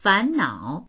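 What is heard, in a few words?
"fan2nao3", Mandarin Chinese; 烦恼; 悩む；煩悩; dictionary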